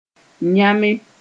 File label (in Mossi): "yãme", moore